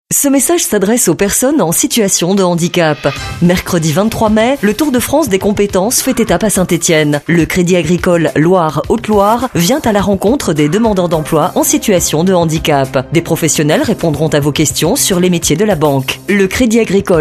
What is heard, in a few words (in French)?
radio pub spot